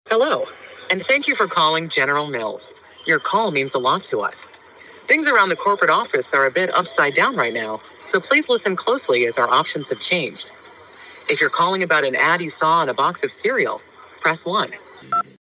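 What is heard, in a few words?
corporate collected peppy smart autoattendant voicemail, Female Voice Over; promotion; General Mills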